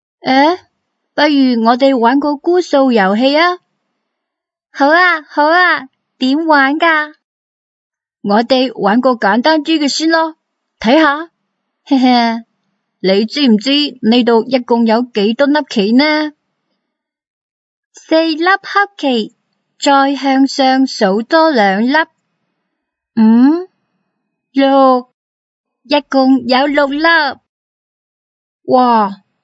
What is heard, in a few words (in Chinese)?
女声童声教材男女粤语